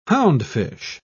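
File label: "houndfish", British English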